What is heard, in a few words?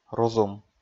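"рузум", Komi, male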